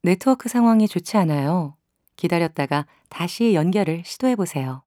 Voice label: bad network connection, Korean; Samsung Galaxy S8